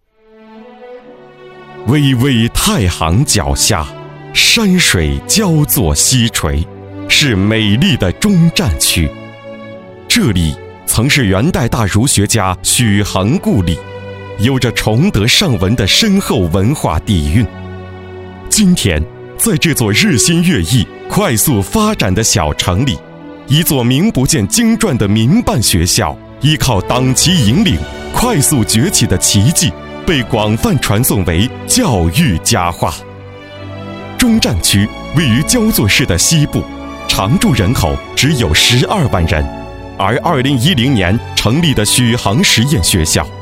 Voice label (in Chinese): 男 专题; 实验学校